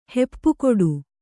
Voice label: "ಹೆಪ್ಪುಗೊಡು", Kannada